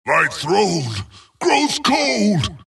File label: wraith death; dota; skeleton king